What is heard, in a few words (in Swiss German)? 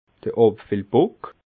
"abfillbock", alsace